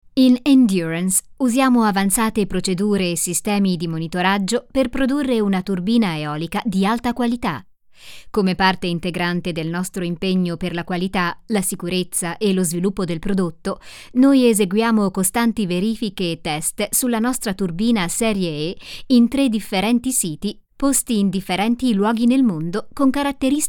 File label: Italian corporate videos